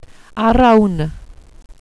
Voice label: "arawn", welsh pronouciations; Wales/welsh names